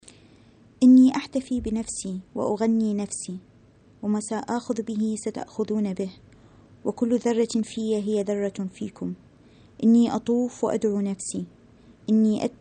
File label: arabic